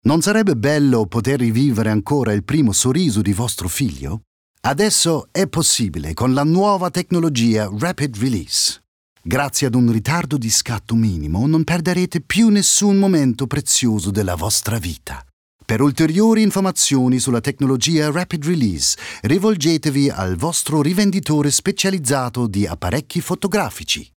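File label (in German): Italian, Freundlich; Feiertag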